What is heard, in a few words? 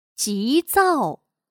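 "急躁", Chinese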